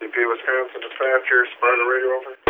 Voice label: Radio; Sparta